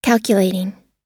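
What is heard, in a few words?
"calculating", American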